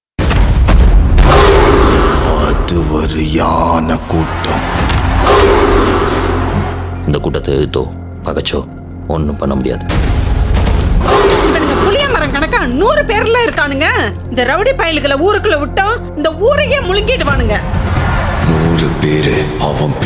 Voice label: Tamil Trailer Dialogue Ringtone; Sulthan